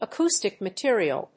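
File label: "acoustic material", English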